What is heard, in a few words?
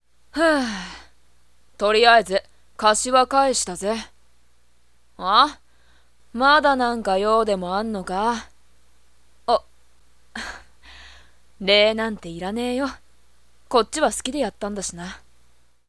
boy